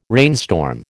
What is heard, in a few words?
"rainstorm", American